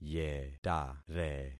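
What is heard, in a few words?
"yetare", concatenated syllables; Affixing experiment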